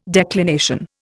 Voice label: "declination", Indian